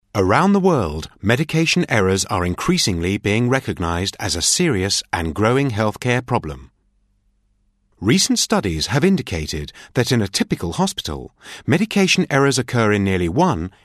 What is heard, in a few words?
English M Medical